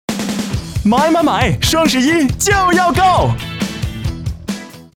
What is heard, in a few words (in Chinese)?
男 活力广告; 淘宝